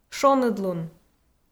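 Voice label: "шоныдлун", Komi, female; kpv audio dict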